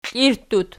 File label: "klirttut", Archi